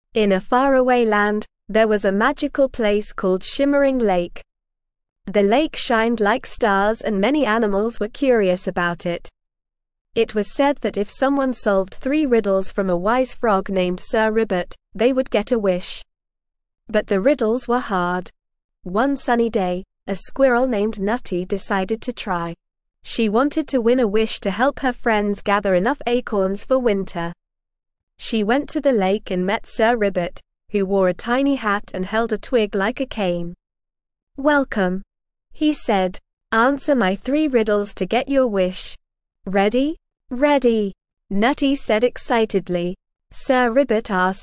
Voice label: ESL Short Stories for Kids Lower Intermediate NORMAL Reading; The Tale of the Shimmering Lake